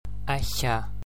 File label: slender ch, gaelic